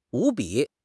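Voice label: "无比", Mandarin Chinese; HSK6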